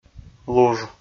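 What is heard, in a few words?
"луж", Komi, male; kpv audio dict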